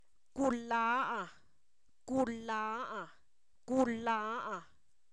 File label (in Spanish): duende, Zapoteco, san miguel albarradas; humano; INALI